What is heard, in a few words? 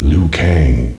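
"Liu Kang" announcer; Names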